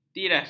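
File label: "biras", Malay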